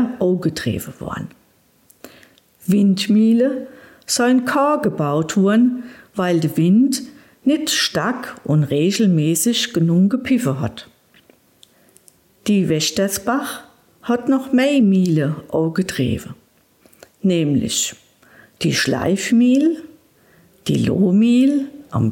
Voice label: Mundart Muehlen von Umstadt

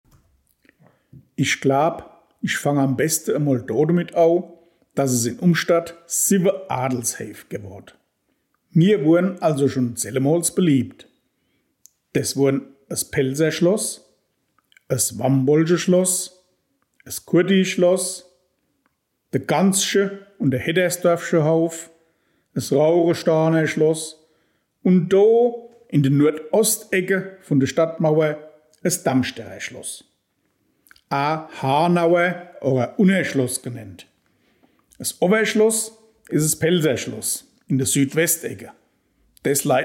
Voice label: Mundart Darmstaedter; Schloss

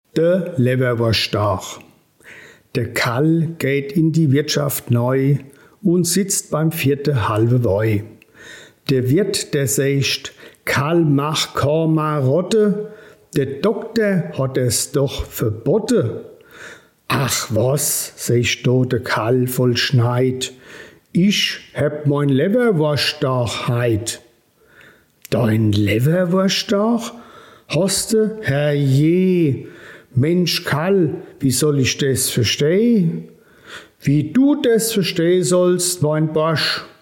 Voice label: Mundart Gedicht Leberwursttag

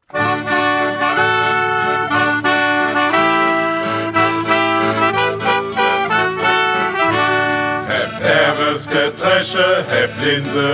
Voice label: Mundart; Haeb Erwes gedresche

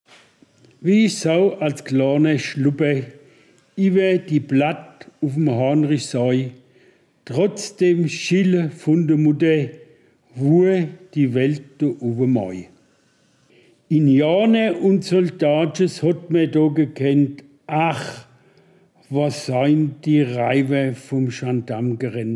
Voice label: Mundart Gedicht; MoinHaanrich

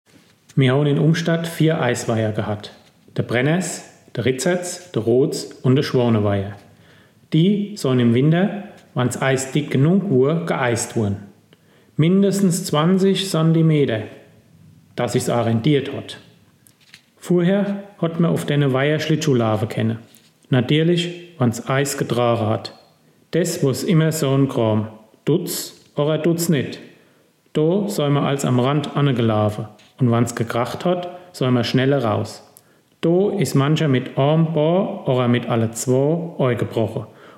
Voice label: Mundart; Aiswaijer

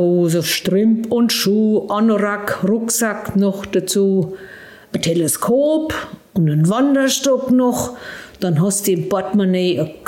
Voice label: Mundart Gedicht Wandern

